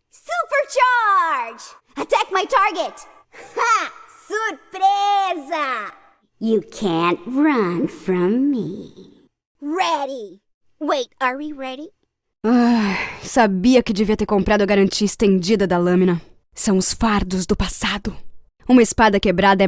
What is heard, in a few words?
GAME BILINGUAL